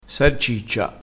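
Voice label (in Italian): "sarciccia", vocabolario dialettale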